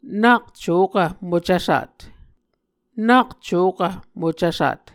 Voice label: "nak cokv mocvsat", muscogee; Mvskoke Words with Voicing Consonants; Onvkv Ohhvyayices